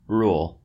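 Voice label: "rule", American English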